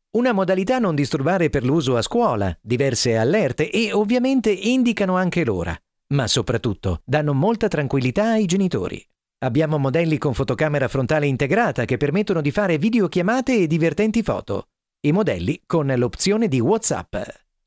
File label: locutor italiano italian voiceover; Doblaje de publicidad